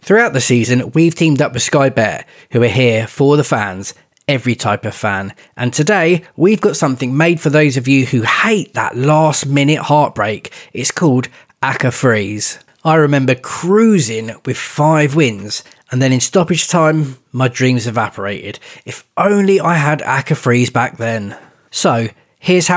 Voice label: Advert; Lads Anonymous Sky Bet Acca Freeze